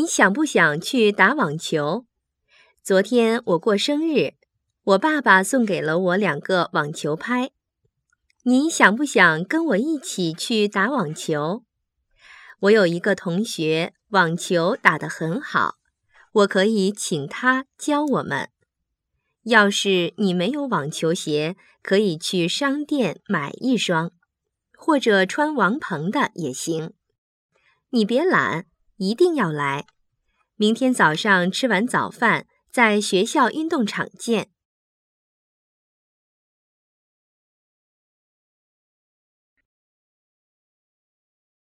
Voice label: telephone message